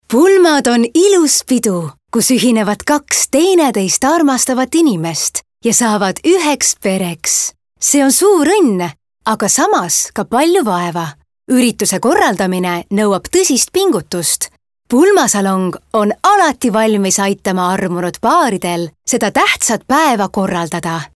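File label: Estonian female Inspiration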